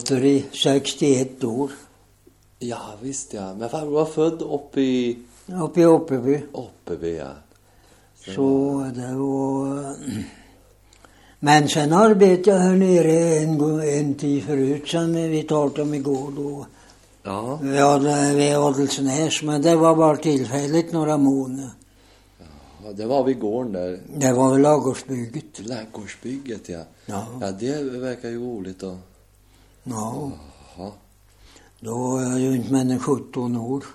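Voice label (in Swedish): Åtvidaberg Östergötland, Svenska sagor, dialekter; Folkminnen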